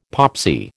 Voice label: "popsy", American